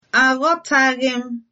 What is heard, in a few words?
no understand, igbo